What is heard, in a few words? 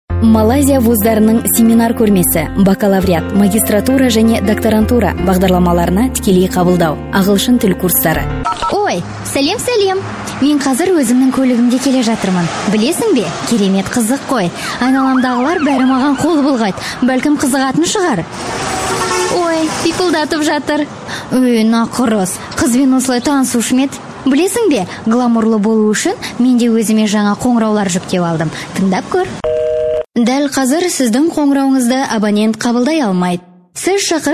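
kazakh female commercial; voice demos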